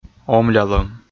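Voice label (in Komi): "омлялӧм", Komi, male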